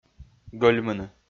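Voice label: "гӧльмыны", Komi, male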